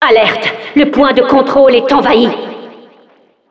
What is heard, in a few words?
announcer control point warning, fr